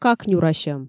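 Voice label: "какнюрасьӧм", Komi, female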